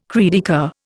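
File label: "cri de coeur", Indian English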